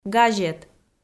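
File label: "газет", Komi, female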